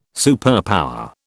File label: "superpower", British